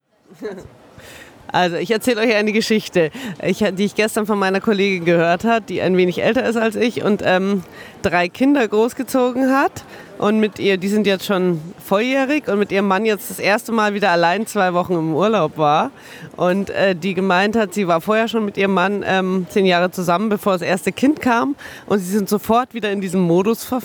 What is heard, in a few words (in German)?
stimme w